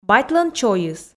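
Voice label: "батьлӧн чойыс", Komi, female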